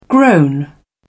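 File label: "groan", British English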